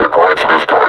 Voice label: announce enemy zombie, combine suppressor; Half Life Alyx